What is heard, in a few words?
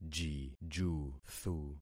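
"jijuthu", concatenated syllables; Affixing experiment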